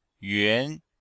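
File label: "yuan2", Chinese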